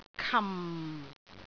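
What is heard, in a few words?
"kam4", Cantonese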